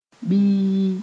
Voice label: "bii", Mooré